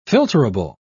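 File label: "filterable", British English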